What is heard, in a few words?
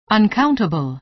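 "uncountable", American English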